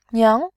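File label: "niang", Mandarin, tone 2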